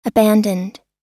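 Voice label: "abandoned", American English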